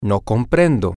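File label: i dont comprehend, spanish, male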